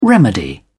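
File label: "remedy", British English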